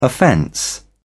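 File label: "offence", British English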